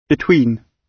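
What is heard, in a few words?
"between", British English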